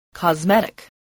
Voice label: "cosmetic", voice spelling

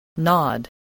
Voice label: "nod", voice

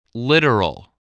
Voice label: "literal", spelling

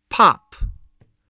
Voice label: "pop", voice spelling

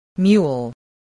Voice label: "mule", voice, spelling

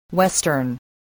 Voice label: "western", spelling

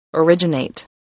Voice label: "originate", English voice

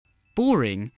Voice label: "boring", spelling

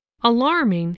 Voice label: "alarming", spelling

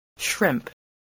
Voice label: "shrimp", spelling

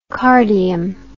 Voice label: "cardium", English

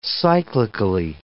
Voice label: "cyclically", english

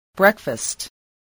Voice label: "breakfast", spelling

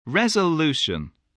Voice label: "resolution", English

